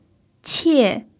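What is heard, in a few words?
"qie" fourth tone, chinese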